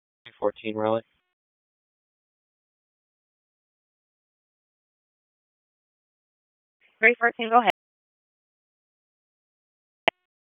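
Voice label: Police Northeast District Disp, radio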